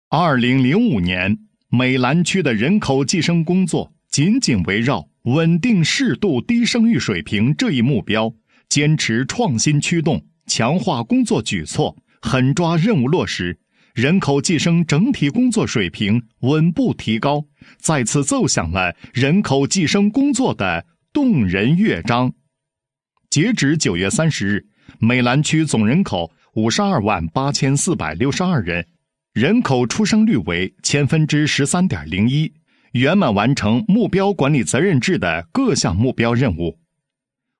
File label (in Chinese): 男 解说词 专题片解说词; 美兰区2015计生